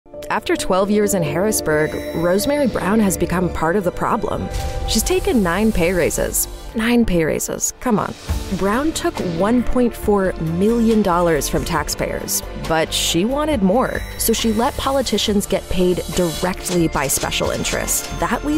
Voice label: Political Attack Ad; voiceover; Rosemary Brown